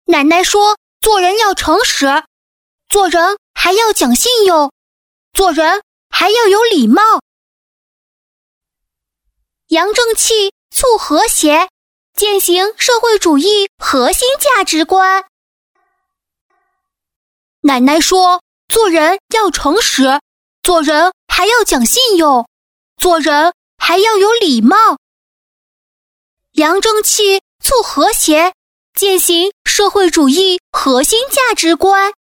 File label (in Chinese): 女-男童-奶奶说